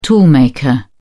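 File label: "toolmaker", British English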